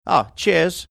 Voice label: saying ah cheers british